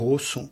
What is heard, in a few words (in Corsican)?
“rossu”, Gravona (sud)